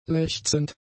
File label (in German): "lechzend", German; adjectives